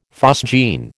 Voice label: "phosgene", American